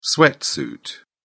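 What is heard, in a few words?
"sweatsuit", British English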